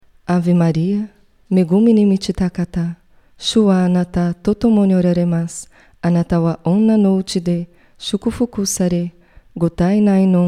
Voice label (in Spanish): ave maria japones normal; ave maria idiomas